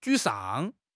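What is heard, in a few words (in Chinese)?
"沮丧", Chinese; HSK6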